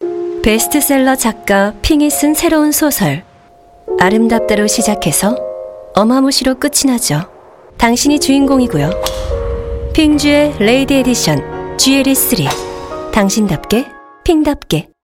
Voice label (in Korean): 광고 샘플링 차분한 분위기 있는